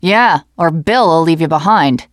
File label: teen girl